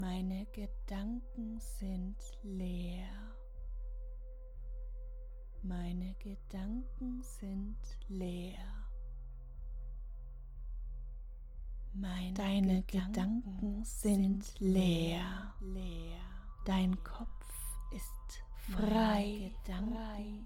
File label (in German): Mantra Gedankenleer, erotische Hypnose; Ohrgasmus